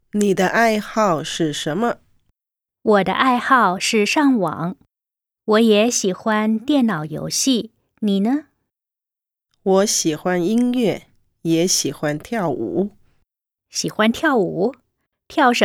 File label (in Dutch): dialoog